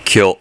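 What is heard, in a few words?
"kyo", Japanese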